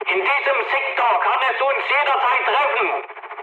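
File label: German chat, speech; War Thunder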